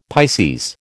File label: "Pisces", American